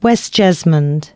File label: announcements, female